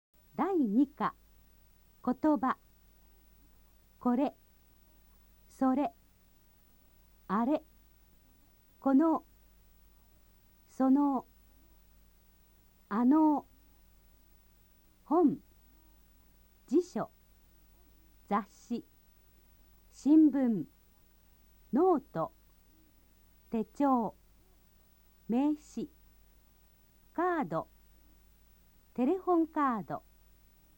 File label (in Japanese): nihongo vokabeln